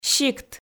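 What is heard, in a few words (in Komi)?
"сикт", Komi, female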